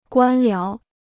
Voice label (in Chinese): "官僚", Chinese